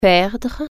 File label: "lose", French